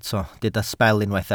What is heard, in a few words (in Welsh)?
"sbel" Welsh